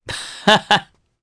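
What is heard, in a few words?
Vox Happy, Japanese; Roi; kingsraid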